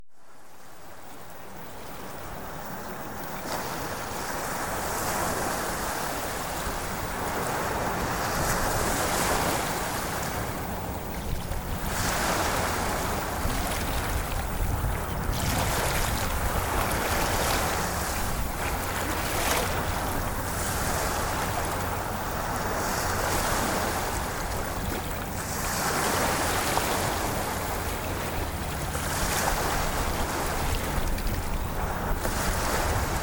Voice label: DEEP MASCULINE VOICE Ocean Waves; Premium Subliminals; Masculinity